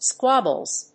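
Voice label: "squabbles", English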